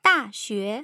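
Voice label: "daxue", Mandarin Chinese, tone pairs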